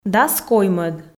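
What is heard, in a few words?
"дас коймӧд", Komi, female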